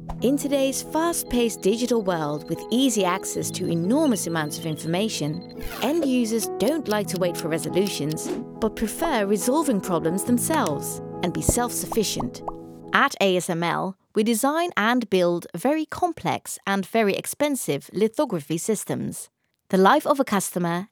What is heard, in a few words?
VO corporate EN